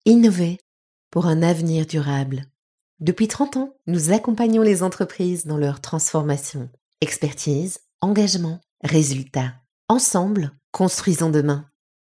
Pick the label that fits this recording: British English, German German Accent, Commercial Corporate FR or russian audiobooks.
Commercial Corporate FR